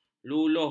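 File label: "luluh", Malay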